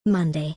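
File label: "Monday", British English; days-of-the-week-in-english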